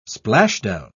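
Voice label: "splashdown", English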